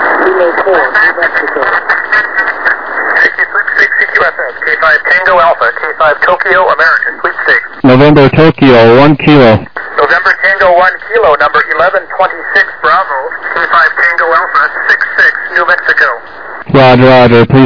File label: SSB USB 21MHz